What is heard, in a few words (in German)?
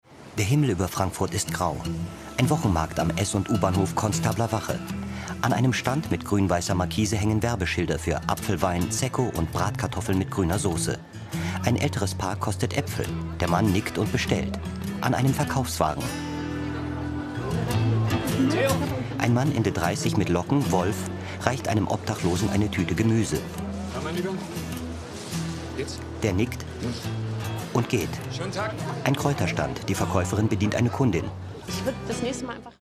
Audiodeskription